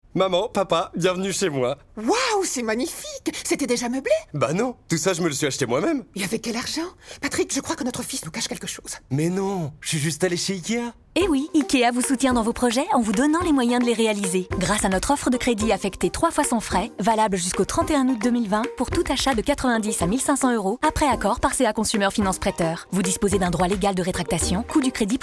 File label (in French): Voix Officielle pub radio Financement BTS; IKEA